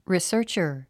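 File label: "researcher", English word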